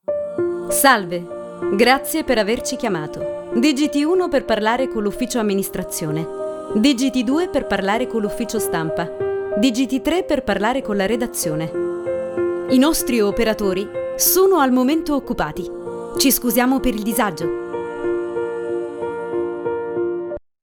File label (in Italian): SEGRETERI TELEFONICA IVR base